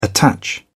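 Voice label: "attach", English, British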